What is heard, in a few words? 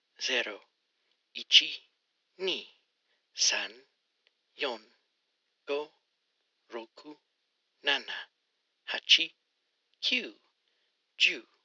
Counting in Japanese